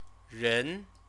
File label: "ren", Chinese; person, people; noun